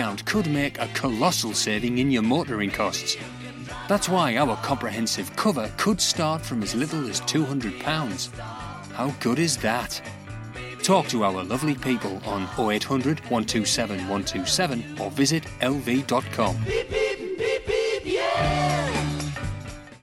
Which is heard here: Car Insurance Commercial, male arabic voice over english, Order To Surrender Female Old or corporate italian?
Car Insurance Commercial